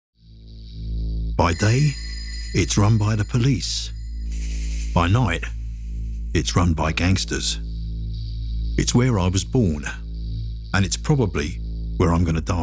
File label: character voices